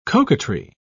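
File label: "coquetry", British English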